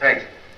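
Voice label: "thanks", male voice; expletives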